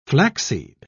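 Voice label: "flaxseed", British English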